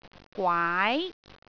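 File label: "gwaai2", Cantonese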